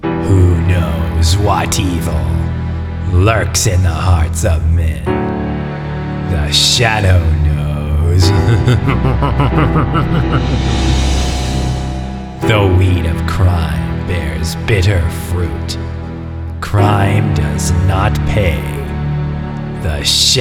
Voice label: Commercial; The Shadow